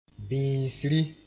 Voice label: "bĩisri", moore